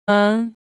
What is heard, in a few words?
Phoneme Female; Commonscript; Lynguistykon